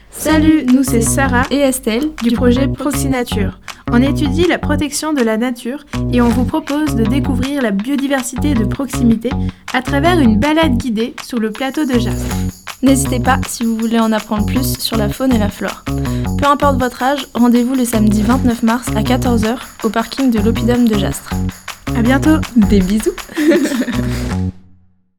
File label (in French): SPOT; proxinature; lycees; olivier de serres aubenas; laos